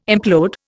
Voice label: "implode", Indian

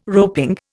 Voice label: "roping", Indian

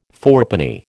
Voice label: "fourpenny", American

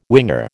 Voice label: "winger", American

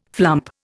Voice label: "flump", Indian

